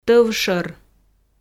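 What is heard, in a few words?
"тӧв шӧр", Komi, female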